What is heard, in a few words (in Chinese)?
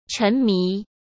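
"沉迷", Mandarin Chinese; chinese flashcards; hsk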